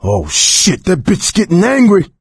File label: witch getting angry, coach; Left 4 Dead 2